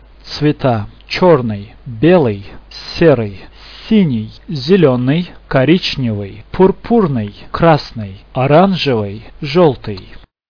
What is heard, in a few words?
russian colors